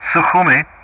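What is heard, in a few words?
"Sukhumi", English; Ka-50; Callsign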